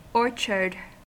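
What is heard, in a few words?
"orchard", American English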